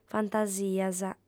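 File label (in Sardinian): "fantasias", female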